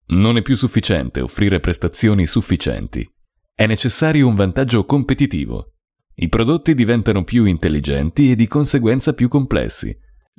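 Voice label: Italian male voice over, narrative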